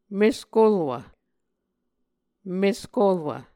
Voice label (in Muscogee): "meskolwv", Muscogee